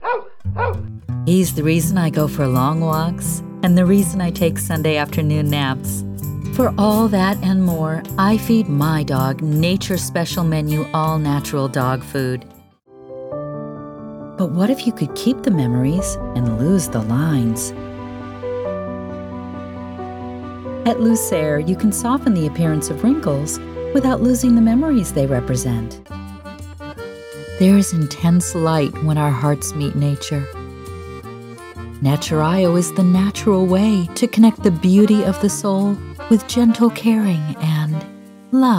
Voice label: Commercial